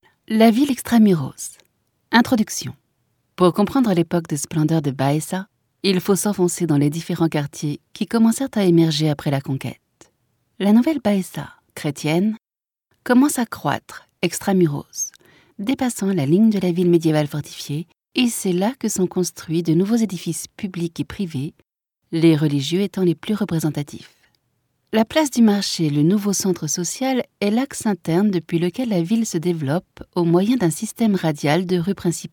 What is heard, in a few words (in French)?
audioguia, frances; audioguia baeza